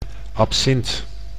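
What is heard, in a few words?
"absint", Dutch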